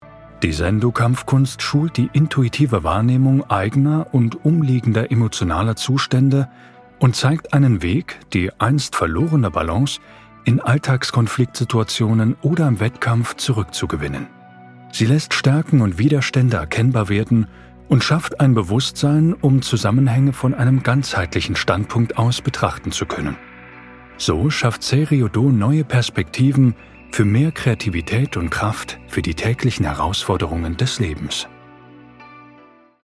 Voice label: Hoerprobe E Learning; Sei Ryu Do

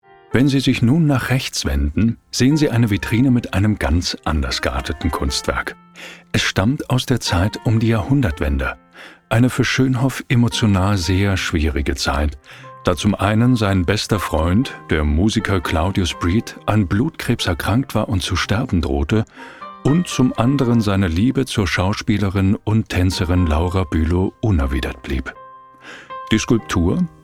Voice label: Hoerprobe Audioguide Kunstausstellung; Schoenhoff

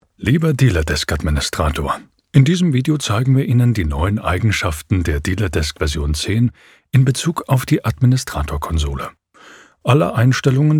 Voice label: Hoerprobe E Learning; Dealerdesk Version 10

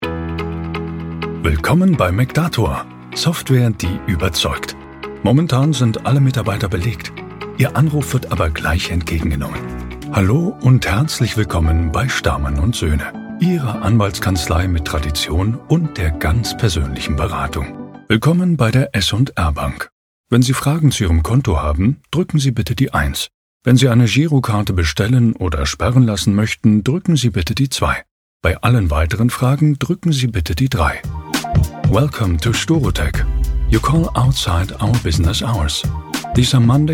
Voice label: professioneller sprecher telefonansage mix

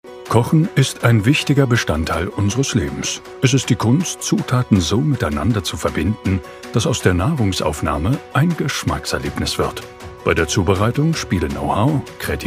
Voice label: Hoerprobe Imagefilm, Sprecher

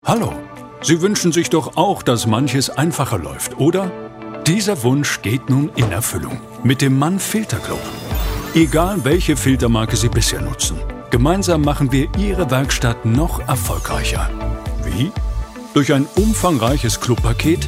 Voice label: Sprachprob Erklarvideo; MANN FILTER Club

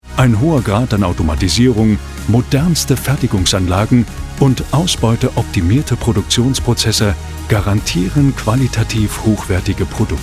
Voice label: Hoerprobe Imagefilm, Sprecher; rettenmeier

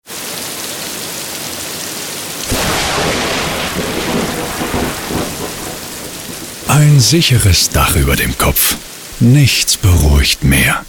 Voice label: Hoerprobe Werbung Dachdeckermeister

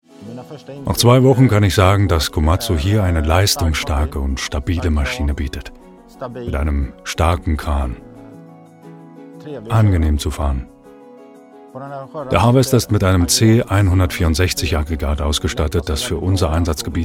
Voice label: Hoerprobe Imagefilm; komatsu forest

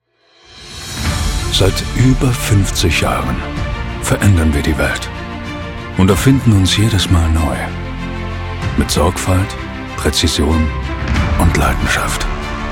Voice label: Hoerproben Imagefilm; Airway

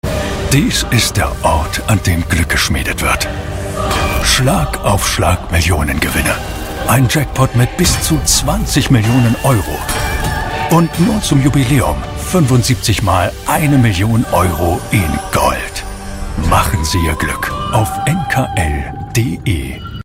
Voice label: Hoerprobe Werbung; NKL

